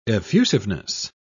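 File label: "effusiveness", British English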